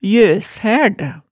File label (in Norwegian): "ljøshærd", hemsedalsmaalet tale